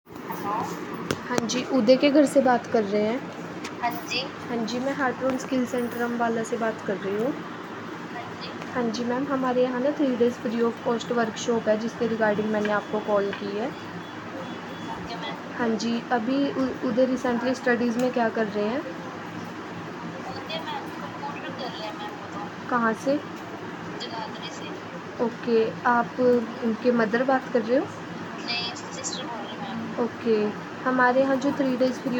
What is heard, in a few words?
call recording